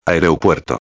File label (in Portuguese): "aereopuerto", espanhol